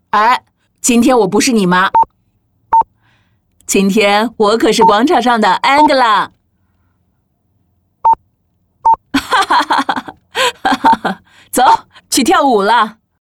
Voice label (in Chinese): 妈妈角色